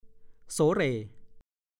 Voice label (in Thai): "โสเหร่", ภาษาโคราช; ดูคำว่า โส